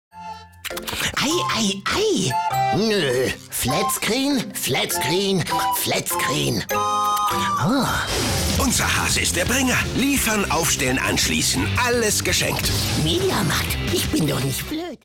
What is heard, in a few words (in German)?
TV Werbung Ostern; media markt